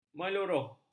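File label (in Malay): "meluruh", Malay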